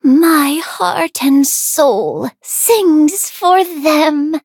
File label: level up, en; Cookie Run